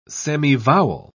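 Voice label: "semivowel", English, British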